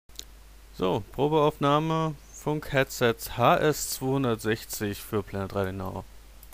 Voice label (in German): Sprachaufnahme +10db, Headset